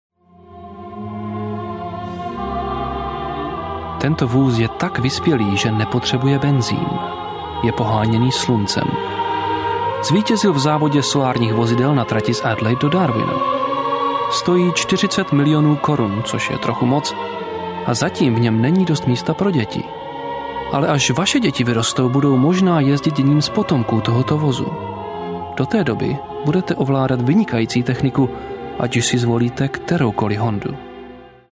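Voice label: Czech, male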